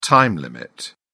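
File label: "time limit", British English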